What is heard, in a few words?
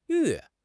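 "愈", Mandarin Chinese; HSK6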